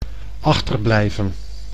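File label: "achterblijven", Dutch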